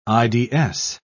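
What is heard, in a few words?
"ids", English, British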